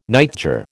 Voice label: "nightjar", American

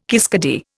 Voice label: "kiskadee", Indian

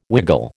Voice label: "wiggle", American

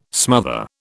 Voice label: "smother", British

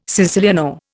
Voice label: "siciliano", Indian

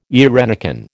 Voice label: "eirenicon", American

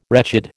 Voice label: "wretched", American

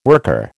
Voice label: "worker", American

